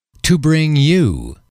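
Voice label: "to bring you", English, male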